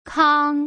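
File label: "kang", Mandarin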